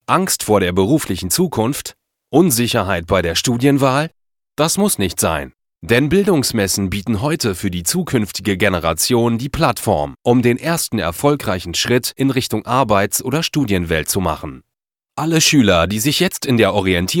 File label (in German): Azubi und Studientage Imagefilm